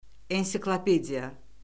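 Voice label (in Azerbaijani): "ensiklopediya", Azerbaijani; Orfoqrafiya Lüğəti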